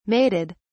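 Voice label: "mated", British English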